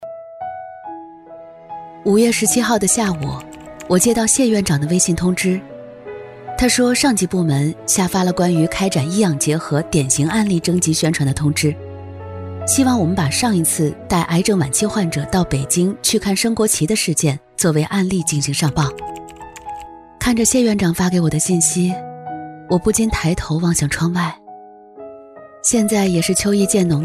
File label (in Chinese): 女, 自然讲述感, 安宁疗护